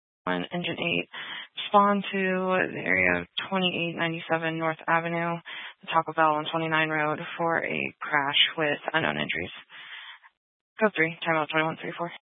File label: Fire Department Dispatch, scanner